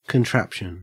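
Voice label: "contraption", Australian English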